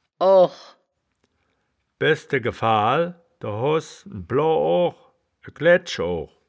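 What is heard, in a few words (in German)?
"oog", Wittlicher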